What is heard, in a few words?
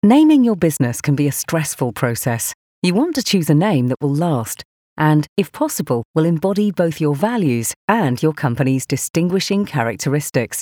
english british narration; Voiceover artist